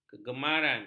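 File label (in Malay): "kegemaran", Malay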